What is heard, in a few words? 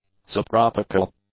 "subtropical", English; adjectives